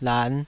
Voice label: "lan5", taiwanese syllables